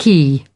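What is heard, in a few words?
"key", British English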